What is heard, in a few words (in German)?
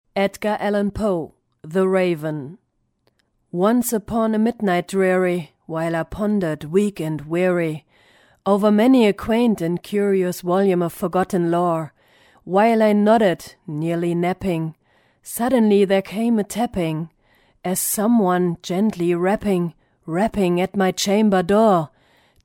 lesung englisch; poe